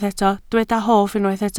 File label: "hoff", Welsh